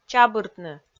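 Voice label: "чабыртны", Komi, female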